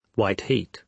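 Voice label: "white heat", British English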